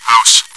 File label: chitchat; svencoop